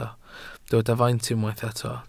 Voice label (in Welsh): "faint", Welsh